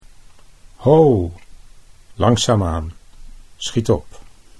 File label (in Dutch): "ho langzaam aan", Dutch